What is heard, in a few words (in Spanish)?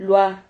"lua", Silabas